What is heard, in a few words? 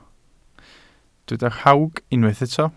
"rhawg", Welsh